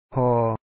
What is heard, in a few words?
"phO2O", Lao; father; ພໍ່